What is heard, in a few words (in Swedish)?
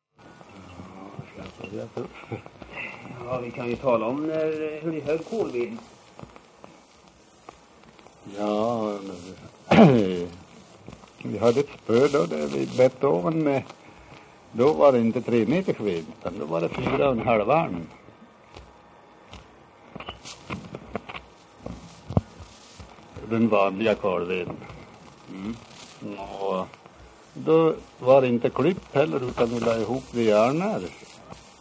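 Järbo Gästrikland, dialekter; Folkminnen; Svenska sagor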